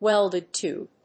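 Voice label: "WELDED TUBE", English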